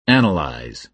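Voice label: "analyse", American English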